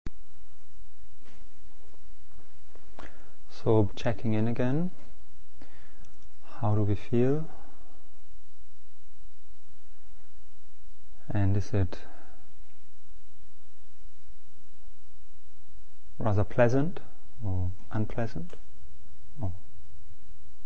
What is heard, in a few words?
guided body sweeping meditation